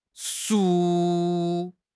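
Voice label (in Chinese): "竖", Chinese; HSK6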